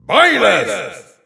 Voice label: German Announcer; Byleth; SSBU